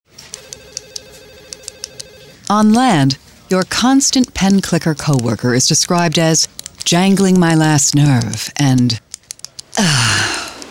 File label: Boat Radio Clicky Pen